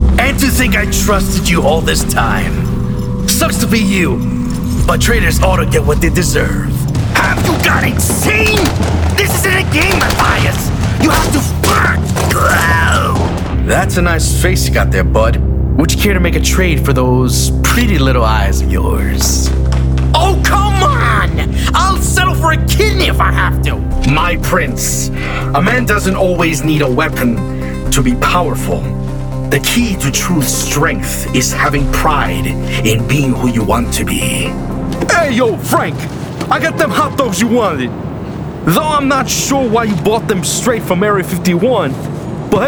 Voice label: Character, Voiceover